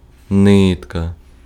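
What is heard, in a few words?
Ukrainian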